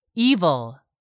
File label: "evil", English; 3000 words